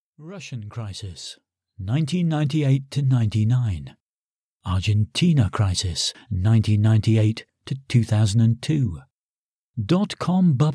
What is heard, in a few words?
Internet Advertisement sample